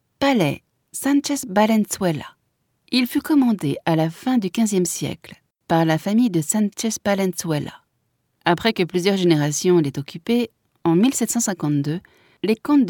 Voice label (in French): audioguia, frances; audioguia baeza